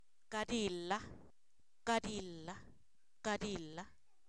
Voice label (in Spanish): Zapoteco, san miguel albarradas; pleito; sustantivos